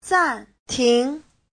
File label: "zan4 ting2", Chinese; 暂停; tạm dừng